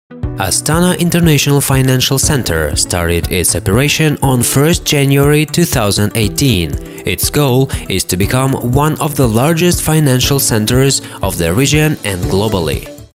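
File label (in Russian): английский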